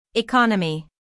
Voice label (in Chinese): 美籍外師念economy